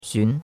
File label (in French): "xun2", chinois; Cours-de-Chinois